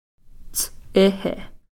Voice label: "ts'ixi", Cow Creek; dog